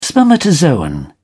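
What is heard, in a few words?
"spermatozoon", British English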